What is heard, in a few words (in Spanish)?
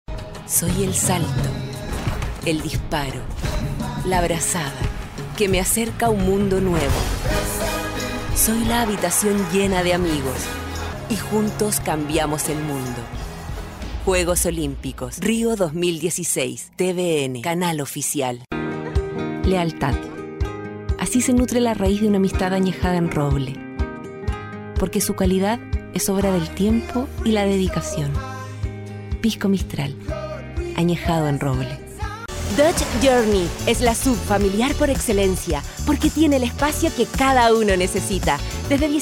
Locutora chilena